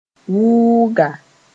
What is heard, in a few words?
"wʋʋga", moore; Nile monitor lizard